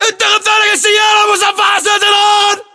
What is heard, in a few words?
order cover armored vehicle, battle chatter, english